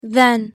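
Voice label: pronunciation "then", English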